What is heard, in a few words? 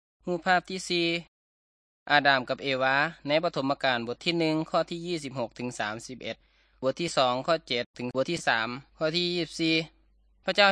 good news thai northeastern